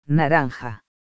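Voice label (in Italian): "arancione", italiano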